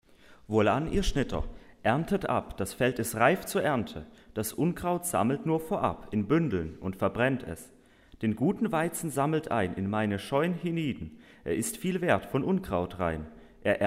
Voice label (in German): Gedicht, Gemeinde; Wohlan ihr Schnitter